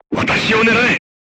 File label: voice message art command oneself, crew tanks japanese